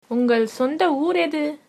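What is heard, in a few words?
where from, Tamil, female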